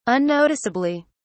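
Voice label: "unnoticeably", British English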